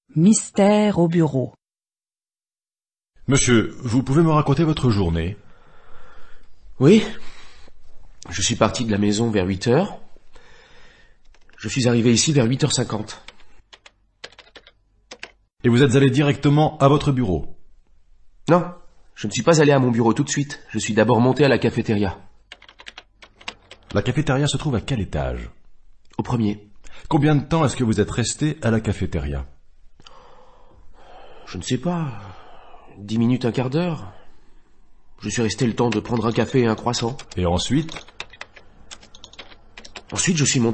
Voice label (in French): Grammaire en dialogues Niveau débutant